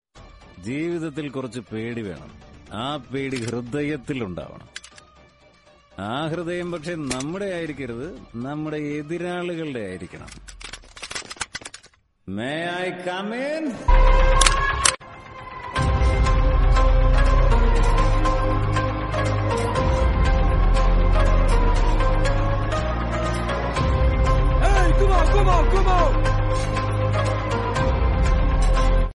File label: malayalam dialogue; kgf 2